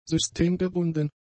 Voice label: "systemgebunden", German; adjectives